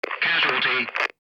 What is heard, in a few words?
American male Hit Made